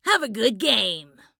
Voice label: Emote Good Luck; Gwen; Voice Pack

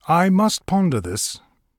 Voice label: Thinking; Obyn; Emote

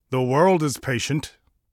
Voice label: Voice Emote Waiting; Obyn

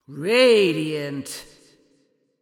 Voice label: Voice Pack Positive; Adora

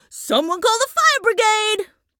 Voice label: Voice Pack Mirror Greetings; Gwen

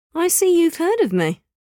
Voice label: Pirate; Place Brickell

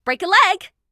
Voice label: Emote Good Luck; Voice Pack

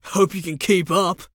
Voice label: Voice Pack Mirror Greetings

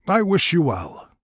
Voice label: Emote Good Luck; Obyn; Voice Pack

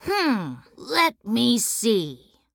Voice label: Emote Thinking; Gwen

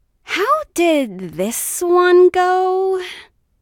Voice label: Emote Thinking; Voice Pack

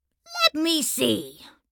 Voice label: Emote Thinking; Gwen

